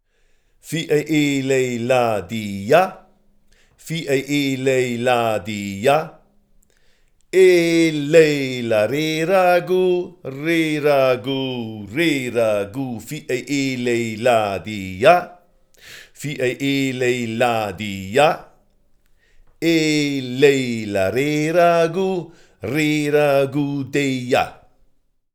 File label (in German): Rehragout voc arab gespr